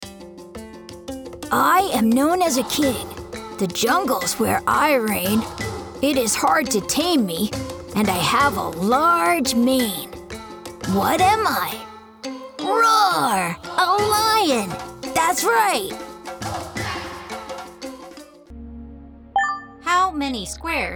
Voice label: K-12 e-learning